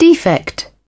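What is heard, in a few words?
"Defect", British English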